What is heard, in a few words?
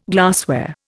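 "glasswort", Indian; Dictionary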